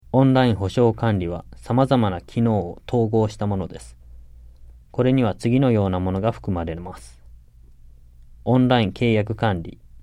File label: JAPANESE; delltranslations